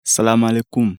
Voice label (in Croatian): volof bok; Senegal